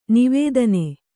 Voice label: "ನಿವೇದನೆ", Kannada; nivēdane; noun

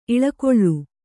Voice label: "ಇಲಿಗುಲಿ", Kannada

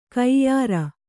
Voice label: "ಕೈಯಾರು", Kannada

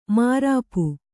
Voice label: "ಮಾರಾಪು", Kannada

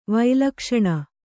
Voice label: "ವೈಲಕ್ಷಣ", kannada

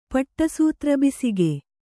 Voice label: "ಪಟ್ಟಸೂತ್ರಬಿಸಿಗೆ", kannada

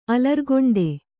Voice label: "ಅಲರ್ಗೊಂಡೆ", Kannada

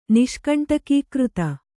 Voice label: "ನಿಷ್ಕಂಟಕೀಕೃತ", Kannada

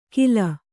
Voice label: "ಖಿಲ", Kannada

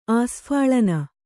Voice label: "ಆಸ್ಫಾಲನ", Kannada

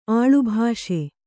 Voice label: "ಆಳುಭಾಷೆ", Kannada; āḷubhāṣe; noun

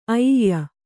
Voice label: "ಐಯ", Kannada

